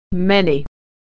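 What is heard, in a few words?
"many", American English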